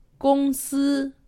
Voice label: "gongsi", Chinese; Survival Chinese

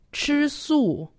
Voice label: "chisu", Chinese; Survival Chinese

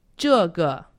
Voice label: "zhege", Chinese; Survival Chinese; Shopping Words

